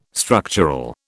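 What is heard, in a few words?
"structural", British English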